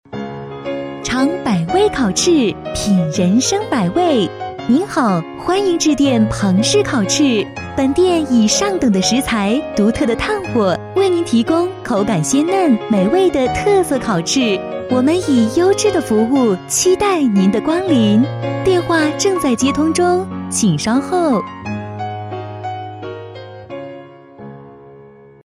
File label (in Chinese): 女 彩铃 甜美亲切; 多风格录音; 国语女声配音